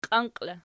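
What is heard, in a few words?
"qianqi" locative, Archi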